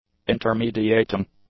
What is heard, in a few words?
"intermediating", english; adjectives